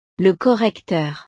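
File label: "le correcteur", French; utiles escolares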